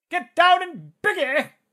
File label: battle chatter; SyrinScape; Syrinscape unplugged; Jungleplanet dinolaser battle